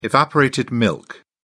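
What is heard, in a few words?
"evaporated milk", British English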